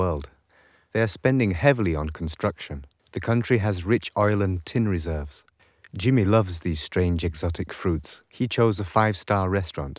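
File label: male, 130 ms, 5 cm, source; SiSEC11; underdetermined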